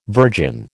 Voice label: "virgin", American